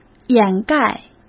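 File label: "掩盖", Mandarin Chinese; hsk; chinese flashcards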